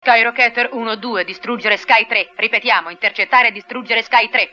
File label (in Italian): voci italiane; Allarme Rosso